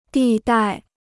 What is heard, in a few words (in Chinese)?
"地带", Chinese